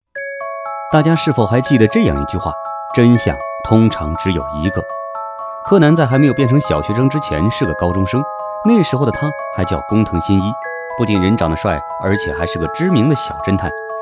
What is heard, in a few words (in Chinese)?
男 抖音解说 动漫解说